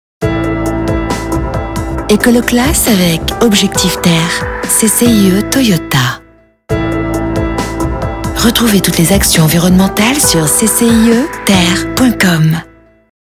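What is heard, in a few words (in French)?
Billboard TV classe legere, voix off; Toyota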